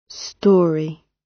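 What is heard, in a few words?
"storey", British English; Design and innovation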